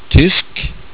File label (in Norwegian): "tysk", Norwegian